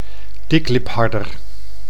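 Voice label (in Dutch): "diklipharder", Dutch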